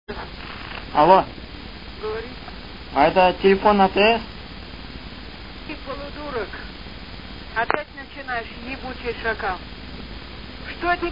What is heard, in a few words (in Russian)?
Бабка, Звонок на АТС